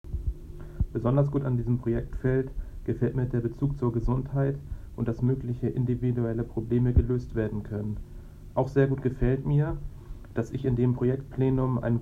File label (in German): Aufnahme für Projektvorstellung